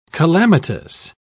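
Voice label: "calamitous", English, British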